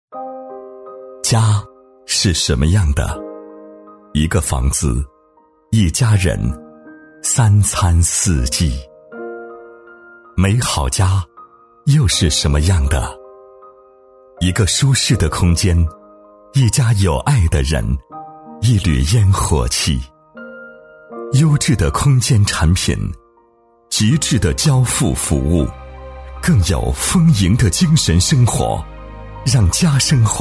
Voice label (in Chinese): 男 广告配音 磁性走心; 厚重磁性 高端大气; 美好家